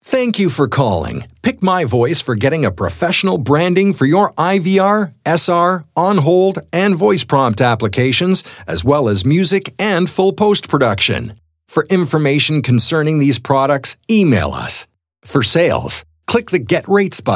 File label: Voice Sample, male voice talent